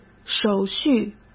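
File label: "手续", Mandarin Chinese; hsk; chinese-flashcards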